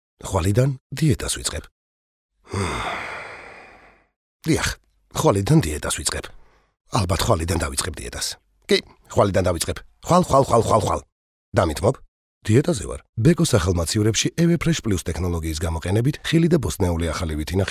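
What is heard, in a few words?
male, narration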